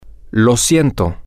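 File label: im sorry, Spanish, male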